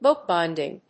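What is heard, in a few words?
"bookbinding", English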